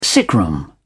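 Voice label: "sickroom", British English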